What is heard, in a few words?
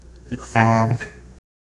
voice tag for tracks